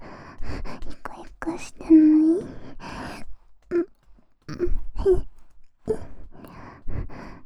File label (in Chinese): 可爱台词; 软萌女友; 作者宣传最推的可爱台词环节